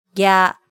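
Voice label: "gya", Japanese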